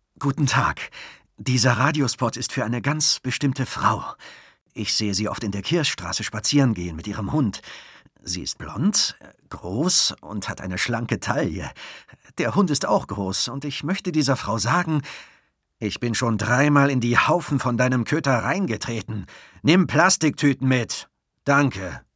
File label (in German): werbung verliebt